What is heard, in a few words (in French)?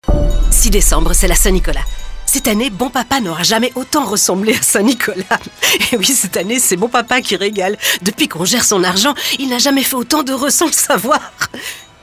spot radio; Respect Seniors